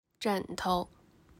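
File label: "枕头", Chinese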